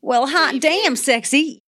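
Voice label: Female Says Hot Damn Sexy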